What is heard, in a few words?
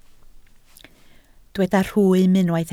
"rhwym", Welsh